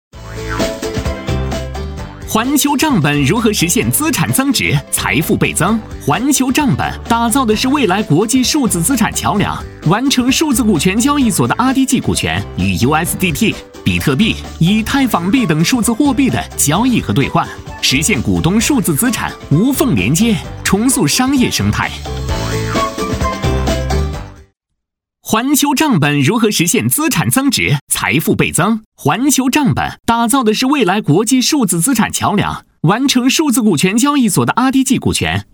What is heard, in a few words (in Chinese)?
男, 轻快; 飞碟说